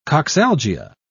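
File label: "coxalgia", British English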